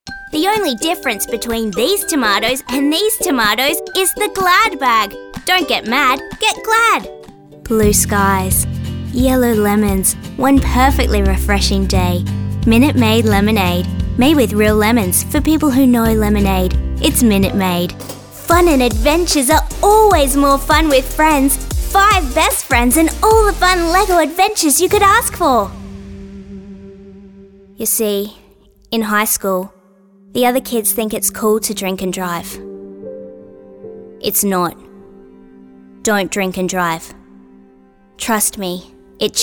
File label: VO Commercial